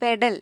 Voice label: "pedal", ml; gcompris

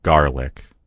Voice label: "garlic", en US; gcompris

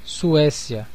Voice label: "sweden", pt BR; gcompris; geography

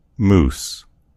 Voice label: "moose", en US; gcompris

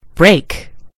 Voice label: "break", en GB; gcompris

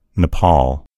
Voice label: "nepal", en US; gcompris; geography

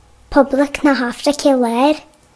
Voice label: central african republic, ga; gcompris-data; geography

